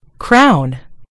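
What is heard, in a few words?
"crown", American English; gcompris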